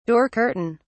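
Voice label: "door curtain", British English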